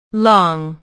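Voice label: "long", English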